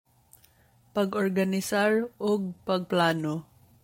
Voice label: Cebuano